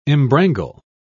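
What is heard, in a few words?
"embrangle", British English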